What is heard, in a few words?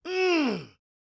PREACHIN, Gospel, PREACHER; ILIO; Vocal Planet